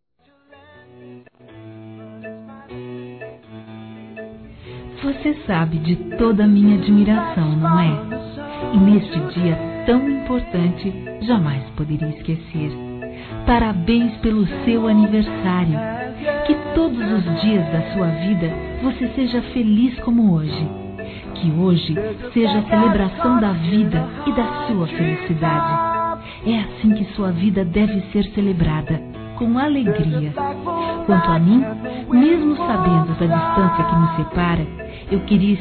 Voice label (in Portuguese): Neutro Distante Feminino; Aniversários; Aniversários diversos